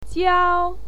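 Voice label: "jiao", Mandarin Chinese pinyin, first tone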